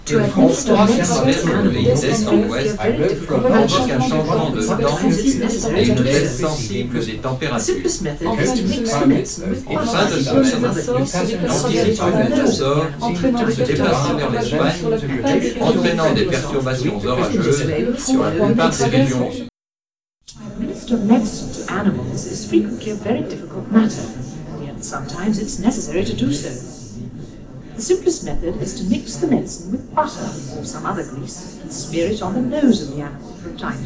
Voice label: Near Then Far Talkers

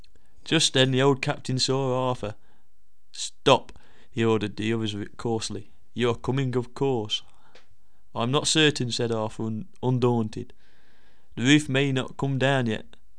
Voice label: male speaker; before university

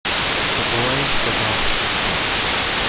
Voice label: clear noise

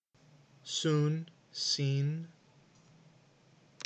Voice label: "soon" "seen"

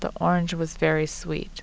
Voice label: female conversational

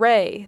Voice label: "ray", Native, American English

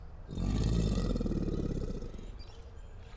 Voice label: female mic

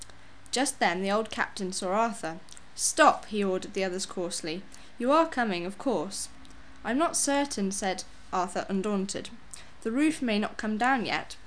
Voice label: female speaker after one year

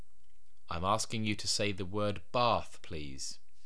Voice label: SSBE bath

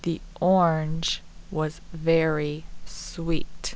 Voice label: female clear

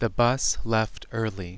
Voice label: male clear

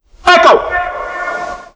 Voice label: audio voiced echo Shaman Gallery

